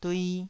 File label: "dui2", taiwanese syllables